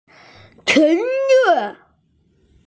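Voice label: "qun2zi5", Mandarin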